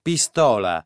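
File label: "pistola", Italian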